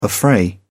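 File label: "affray", English, uk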